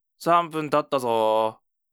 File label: alarm, ASMR